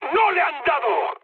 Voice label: bomb failure, mood high, speech; War Thunder